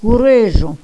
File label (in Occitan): "correja", gasconha